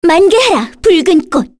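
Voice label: Vox Skill, Korean; Kirze; kingsraid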